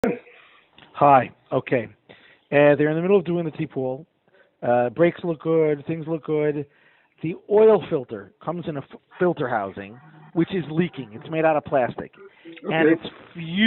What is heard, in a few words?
Call recording; AUTO KING D.A. LTD